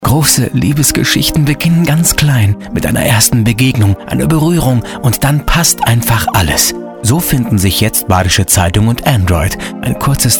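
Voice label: German, male, commercial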